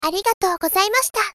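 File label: "Arigato gozai mashita", Japanese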